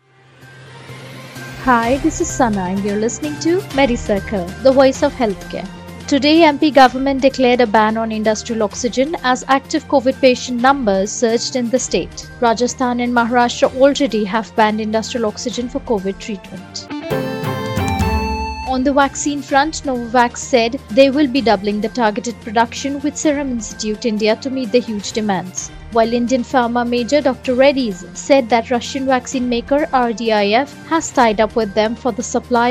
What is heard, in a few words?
english news